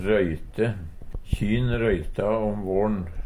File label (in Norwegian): "royte", Numedalsmål